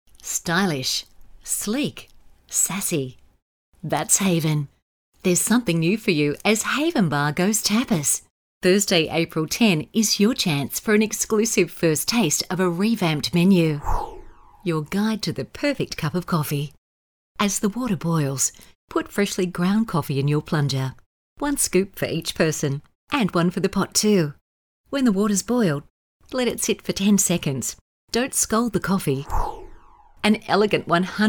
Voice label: Classy

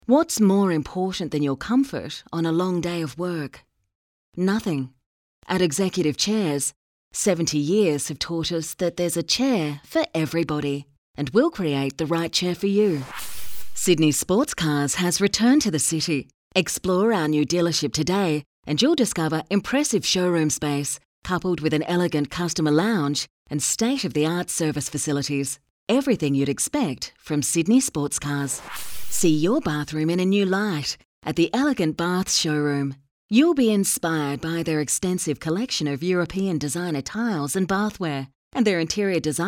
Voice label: Smooth Classy

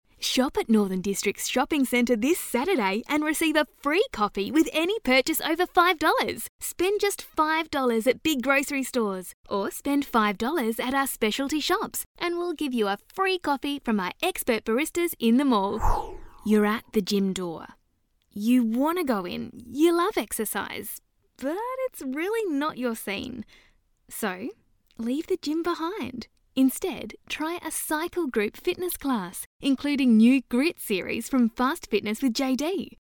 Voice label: Retail Friendly

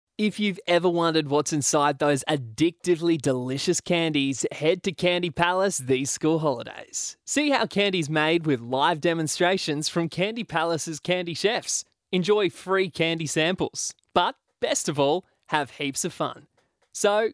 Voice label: Young Cool

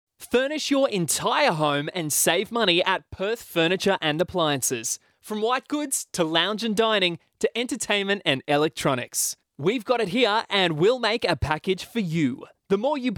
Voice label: Hard Sell

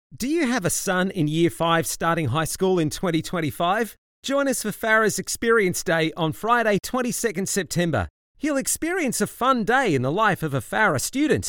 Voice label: Hip Young Cool

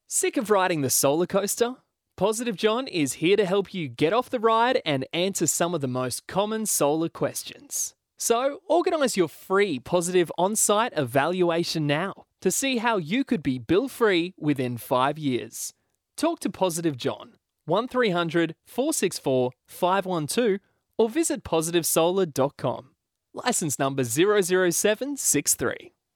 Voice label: Natural

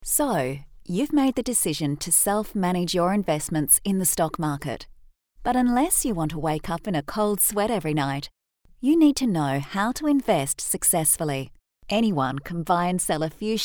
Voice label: Corporate Friendly

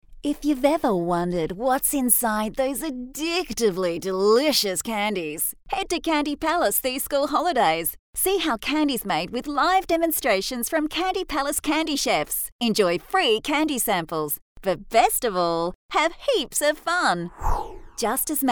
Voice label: Hard Sell

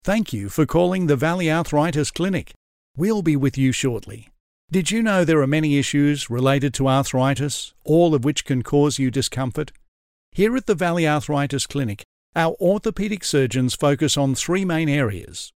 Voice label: On Hold

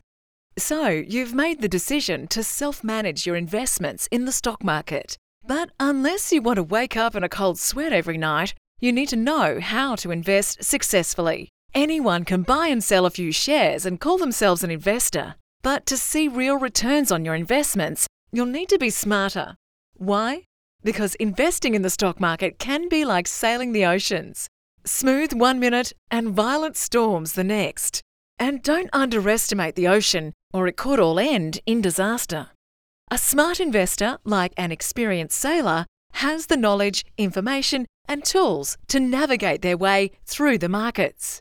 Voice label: Corporate

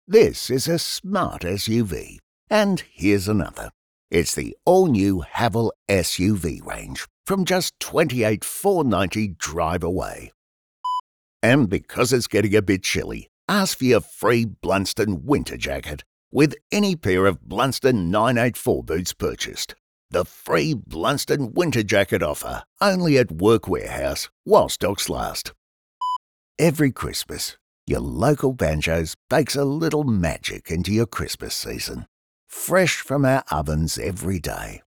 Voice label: RETAIL FRIENDLY

